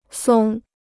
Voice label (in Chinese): "松", Chinese